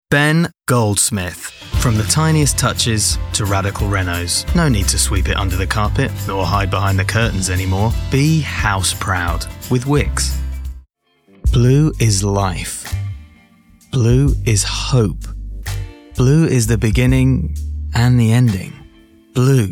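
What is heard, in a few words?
Commercial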